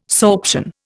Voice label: "sorption", Indian